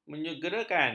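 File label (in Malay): "menyegerakan", Malay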